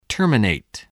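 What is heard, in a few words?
"terminate", English word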